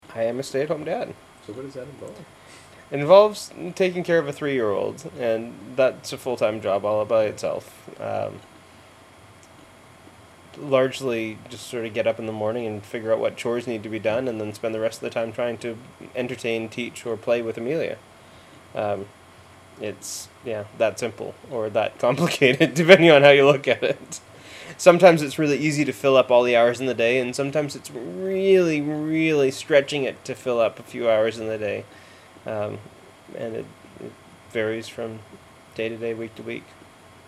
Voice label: BC Vancouver Conversation, Canada; Vancouver :: Natural Conversation

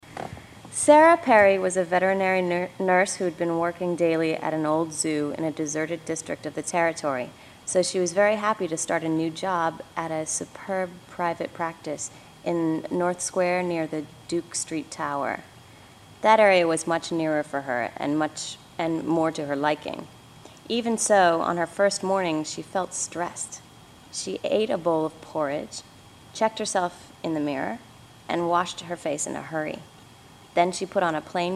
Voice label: American Common Text, USA; dialect samples

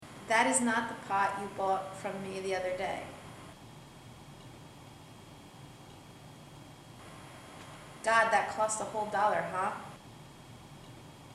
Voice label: US New Jersey Trenton Practice; usa; dialect samples

